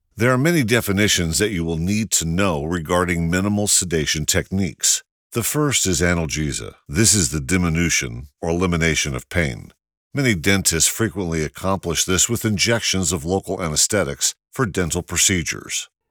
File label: Medical Narration